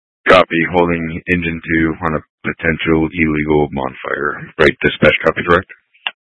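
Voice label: Incident Tactical 1, Scanner audio recording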